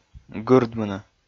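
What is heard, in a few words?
"гырдмыны", Komi, male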